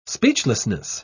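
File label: "speechlessness", English, British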